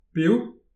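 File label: "piv", Breton; petit precis de prononciation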